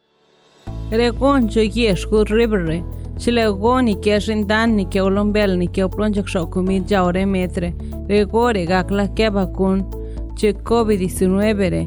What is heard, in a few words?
Zapoteco; Hood River; Vaccine Radio Spots; covid19